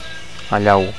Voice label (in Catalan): "allau", Catalan; avalanche